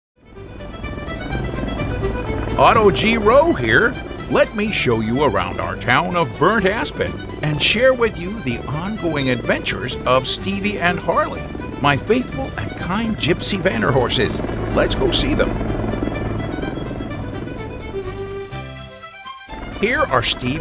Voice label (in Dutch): Tekenfilm dubbing